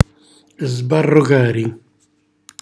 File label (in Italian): "sbarrogari", Calabrese; cucina calabrese